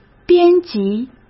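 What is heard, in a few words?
"编辑", Mandarin Chinese; hsk; chinese-flashcards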